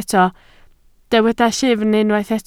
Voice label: "llyfn", Welsh

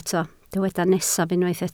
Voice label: "nesaf", Welsh

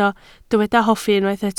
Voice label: "hoffi", Welsh

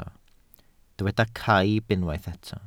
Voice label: "caib", Welsh

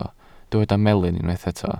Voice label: "melyn", Welsh

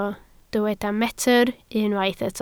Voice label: "metr", Welsh

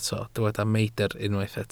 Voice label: "meidr", Welsh

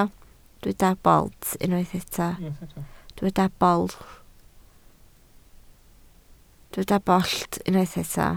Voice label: "bollt", Welsh

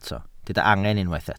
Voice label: "angen", Welsh

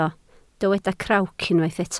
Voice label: "crawc", Welsh

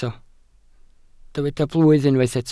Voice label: "blwydd", welsh

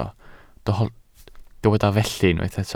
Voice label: "felly", Welsh

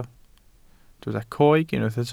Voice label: "coeg", Welsh

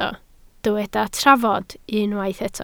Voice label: "trafod", Welsh

